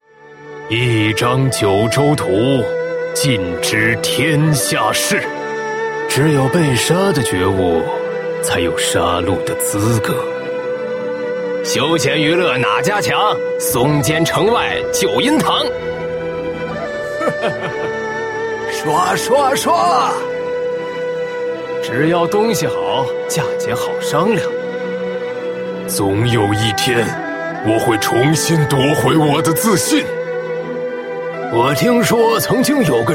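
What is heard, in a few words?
male middle-aged and elderly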